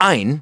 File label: "one", masculine; iOS 4